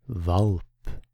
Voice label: "Valp", Norwegian